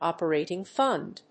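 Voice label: "operating fund", English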